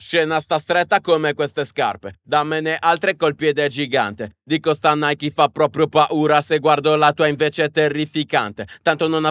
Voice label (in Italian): Voce pulita con Deverberate